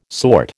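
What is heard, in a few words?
"sort", American English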